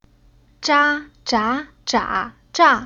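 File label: "Zha", Chinese syllable; Уроки фонетического блока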